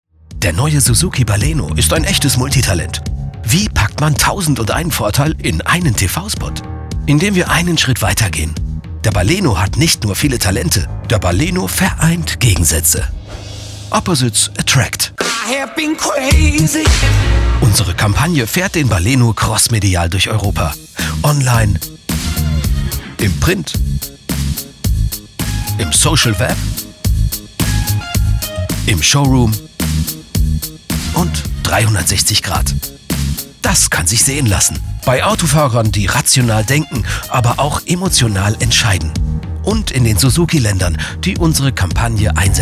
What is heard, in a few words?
casefilm promo und trendy